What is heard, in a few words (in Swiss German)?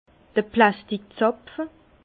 "plasttik", Bas Rhin; vin; bouchon synthétique